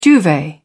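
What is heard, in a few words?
"duvet", British English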